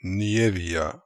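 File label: "Nievia", pronunciations; Fourth Way; Beelzebub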